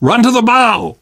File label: on big ship, voice; Left 4 Dead 2; Source Engine; video games; namvet